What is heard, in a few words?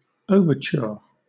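"overture", British English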